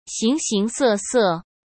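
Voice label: "形形色色", Mandarin Chinese; chinese flashcards; hsk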